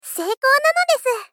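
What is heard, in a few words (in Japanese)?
Moe Success Seiko nanodesu, moe loli girl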